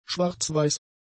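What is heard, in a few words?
"schwarzweiß", German; adjectives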